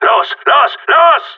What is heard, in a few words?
move it, radio; GSG9